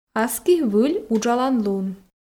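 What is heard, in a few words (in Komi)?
"аски выль уджалан лун", female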